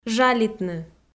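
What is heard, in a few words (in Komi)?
"жалитны", Komi, female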